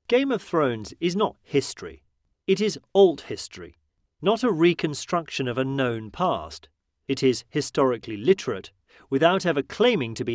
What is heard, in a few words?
male british voiceover audiobooks; iron fire ice